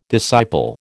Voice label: "disciple", American